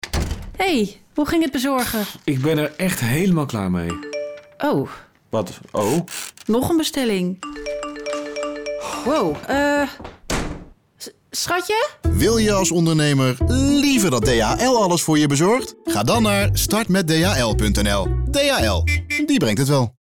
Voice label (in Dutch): Radiospot B2C